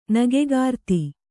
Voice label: "ನಗೆಕಾರ್ತಿ", Kannada